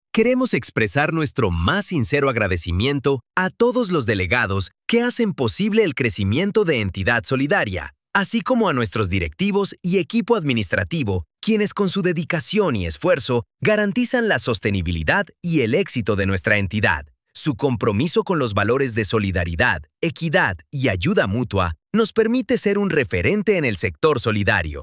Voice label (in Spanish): masculino; PORTAFOLIO DE VOCES; ASAMBLEA